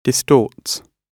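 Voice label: "distorts", British English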